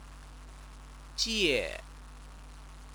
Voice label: "jie", Chinese

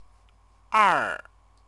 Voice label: "er", Chinese; two; number

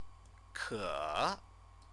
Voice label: "ke", Chinese

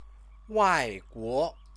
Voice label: "waiguo", Chinese

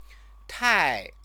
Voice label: "tai", Chinese

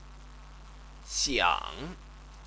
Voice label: "xiang", Chinese

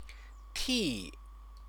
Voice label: "ti", Chinese

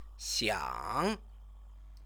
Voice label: "xiang", Chinese; 想; plan to, want to; modal verb

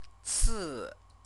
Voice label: "ci", Chinese; CTCFL; University of Oxford

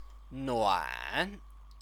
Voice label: "nuan", Chinese; 暖; warm; resultative word

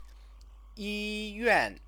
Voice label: "yiyuan", Chinese; 医 院; hospital; noun; Chinese lessons

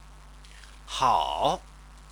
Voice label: "hao", Chinese

